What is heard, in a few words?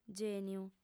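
"gèniu", female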